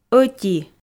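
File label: "ӧти" Komi, female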